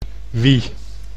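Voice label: "wie", Dutch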